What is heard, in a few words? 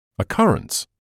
"occurrence", English